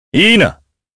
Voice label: Vox Deny jp; Kain; kings raid